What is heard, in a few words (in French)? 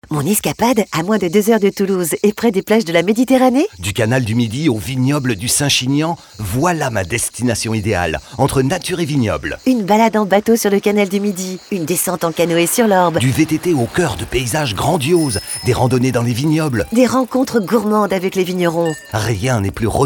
spot radio; canal du midi; toulouse fm